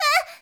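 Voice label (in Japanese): "wa", Japanese girl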